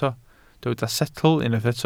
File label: "setl", Welsh